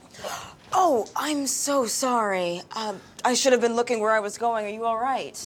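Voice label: apologizing, American English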